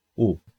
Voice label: Spanish U